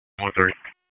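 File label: Fire Department HQ Dispatch, p25; RFD HQ DISP; wake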